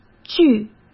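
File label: "距", Mandarin Chinese; hsk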